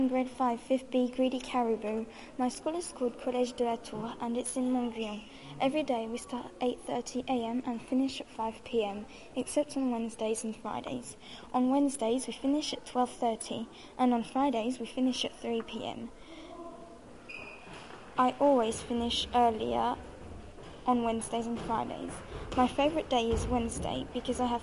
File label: english classes UK